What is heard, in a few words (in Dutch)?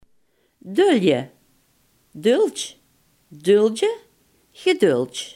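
"dulden" Limburgish dialect word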